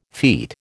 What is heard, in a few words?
"feat", American